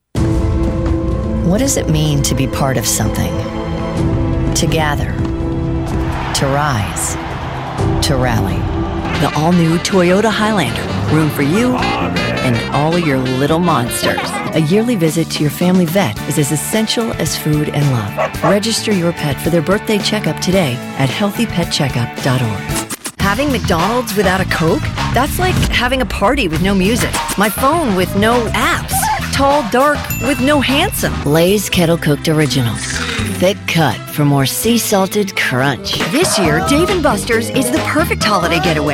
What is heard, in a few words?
Commercial